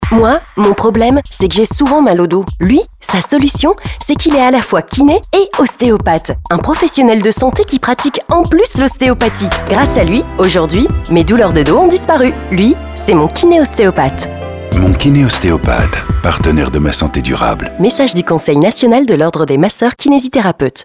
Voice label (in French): Pub radio Kiné Ostéo medium